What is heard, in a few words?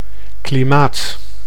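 "klimaat", Dutch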